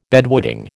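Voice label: "bedwetting", American English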